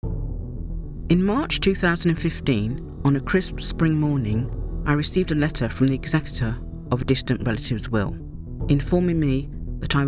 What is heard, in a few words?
audiobook